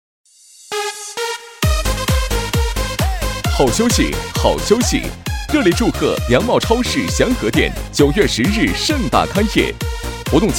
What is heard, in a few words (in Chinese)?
男 广告 促销激情